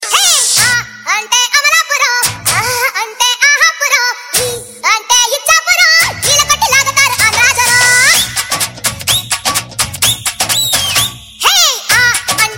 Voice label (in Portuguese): mensagem de voz engracada